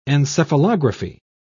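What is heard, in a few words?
"encephalography", English, British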